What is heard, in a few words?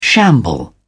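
"shamble", British English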